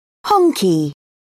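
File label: "honky", British English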